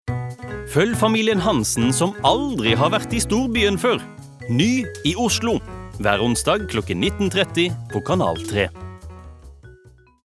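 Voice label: Norwegian promo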